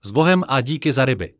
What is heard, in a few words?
so long, Czech